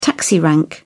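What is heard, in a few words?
"taxi rank", British English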